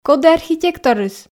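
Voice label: "коді архитекторыс", Komi, female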